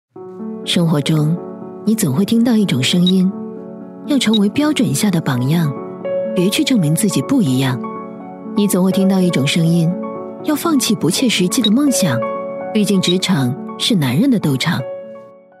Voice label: 女 职场女性内心独白

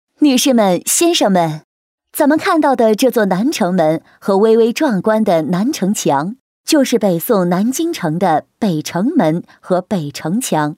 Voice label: 女 专题 古城解说词, 国语女声配音

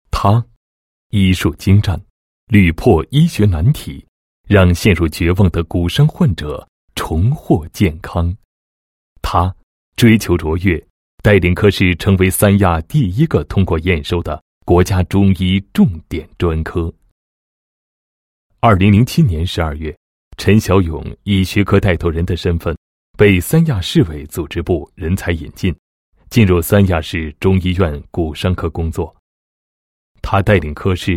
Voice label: 男-医学人物; 中医外交先行者 陈某介绍

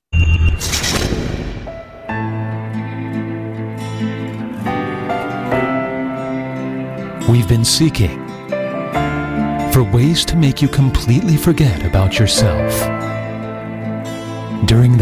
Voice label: 外籍男

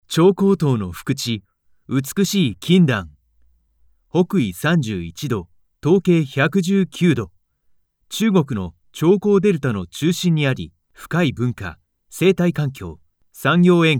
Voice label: 城市宣传片

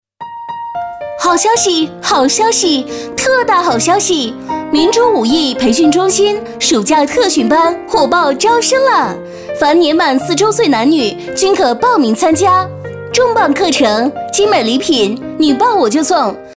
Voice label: 女 广告, 国语女声配音; 明珠舞蹈培训中心

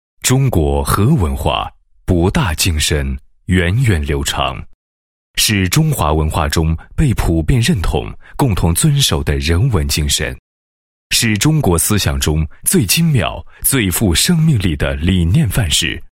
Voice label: 男 散文 论坛VCR脚本; 中国和文化

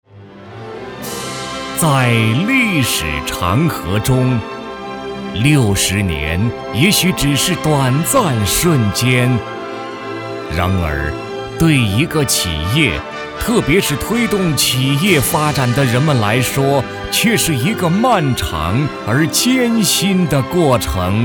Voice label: 男 企业专题 大气 红色经典类; 中铁十九局; 央视老师

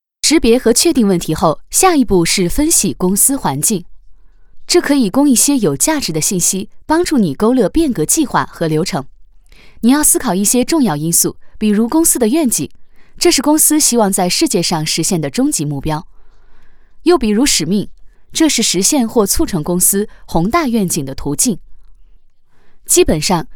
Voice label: 女 课件 公司业务培训

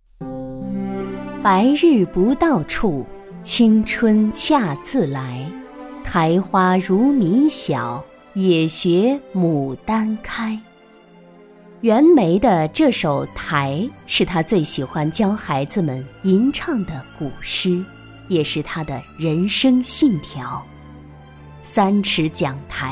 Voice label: 女 专题 娓娓道来讲人物

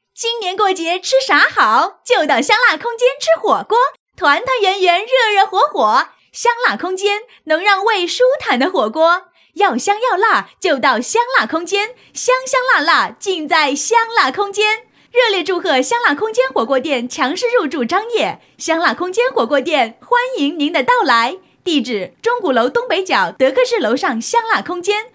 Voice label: 女, 广告, 促销, 火锅广告; 香辣空间火锅广告

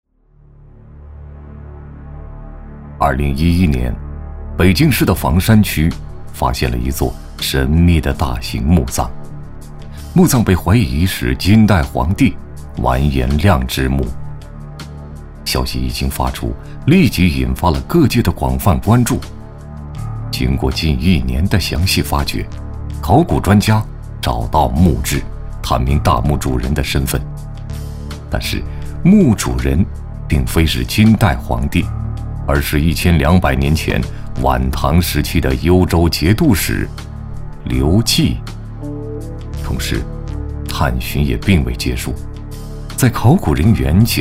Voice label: 男 栏目 古墓探寻 类似探索发现

